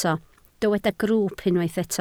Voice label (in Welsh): "grwwp" Welsh